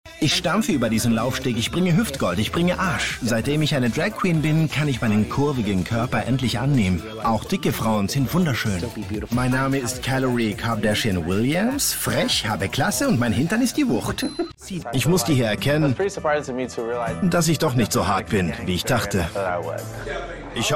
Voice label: DEUTSCH MALE Voice Over; LOFT VOICES